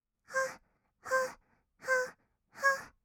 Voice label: はっはっ, kawaii voice, 合いの手; 1200 kawaii voice!!; ASMR

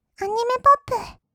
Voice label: アニメポップ, kawaii voice; ASMR; 音楽ジャンル

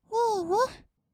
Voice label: 「にぃに」, kawaii voice; ASMR; 二人称

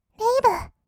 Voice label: レイヴ, kawaii voice; 1200 kawaii voice!!; 音楽ジャンル

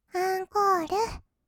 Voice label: "アンコール", kawaii voice; 1200 kawaii voice!!; 色々なワンショット

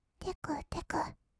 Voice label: "てくてく", kawaii voice; ASMR; 色々なワンショット

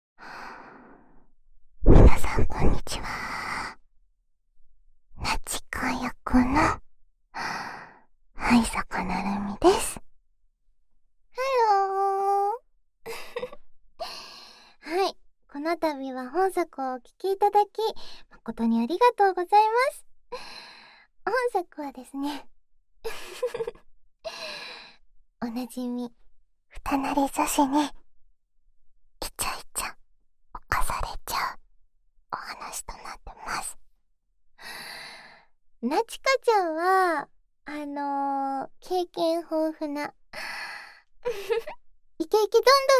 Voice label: フリートーク; ASMR

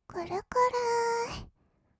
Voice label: "くるくる～", kawaii voice; ASMR; 色々なワンショット; 1200 kawaii voice!!

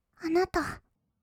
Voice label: "あなた", kawaii voice; ASMR; 二人称

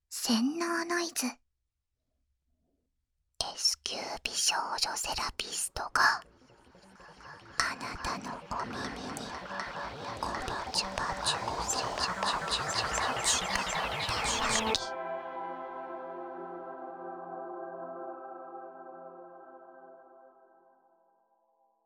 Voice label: タイトルコール; 洗脳ノイズ～Ｓ級美少女セラピストが、あなたのお耳に媚びチュパ忠誠を誓ったワケ～

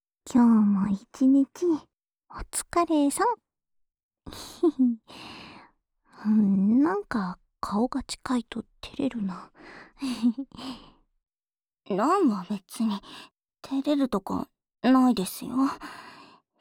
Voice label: 『添い寝／二人同時（左右逆）』, ASMR

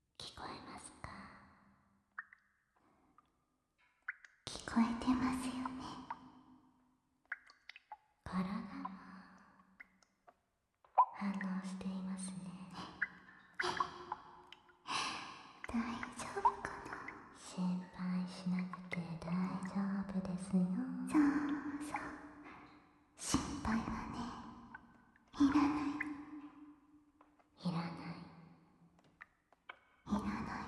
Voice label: 絶え間なく続く変幻自在スピード耳舐め＆囁き, ASMR

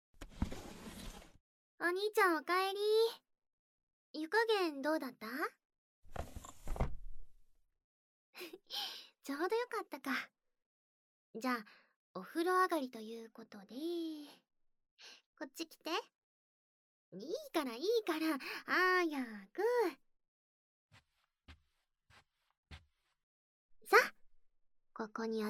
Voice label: 労い耳かきと添い寝, ASMR

